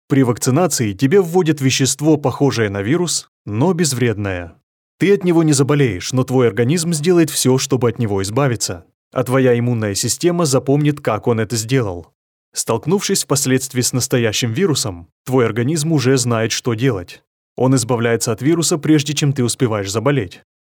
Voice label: russian medical narration

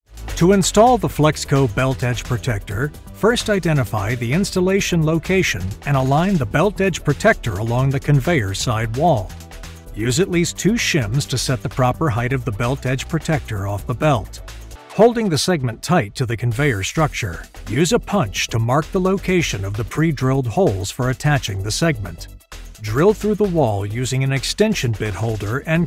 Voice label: english american explainer videos

